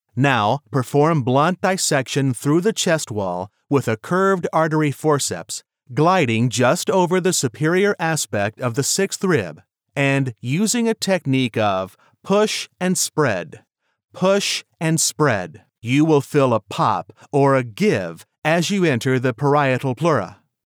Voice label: medical procedure instruction, male medical voiceovers